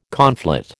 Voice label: "conflate", American